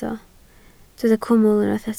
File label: "cwmwl", Welsh